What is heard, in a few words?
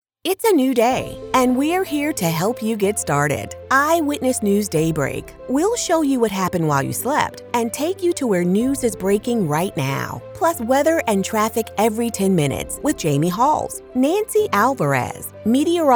TV Affiliate Promo; Female